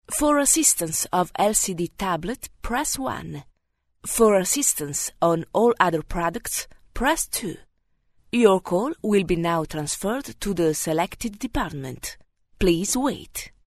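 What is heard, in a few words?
Italian English, female, narration; accent of italy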